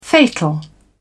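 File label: "fatal", British English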